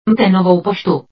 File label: Mail Czech